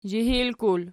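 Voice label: "zhihilkul" nominative, Archi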